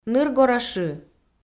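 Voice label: "ныргора шы", Komi, female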